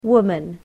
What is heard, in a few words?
"woman", English